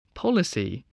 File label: "policy", English